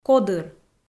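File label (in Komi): "кодыр", Komi, female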